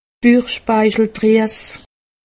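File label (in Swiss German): "buchspeicheldries", Alsatian; medecine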